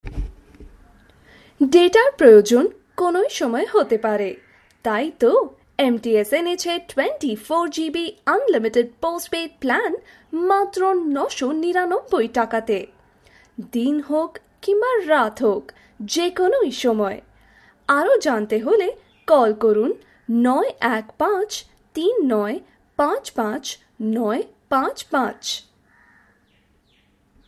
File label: Bengali